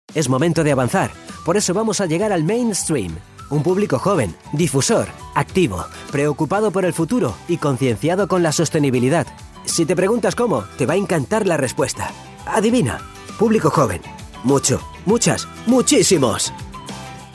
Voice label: spanish male corporate